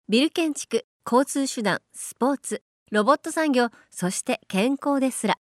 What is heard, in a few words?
mature corporate video